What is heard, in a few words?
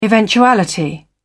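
"eventuality", British English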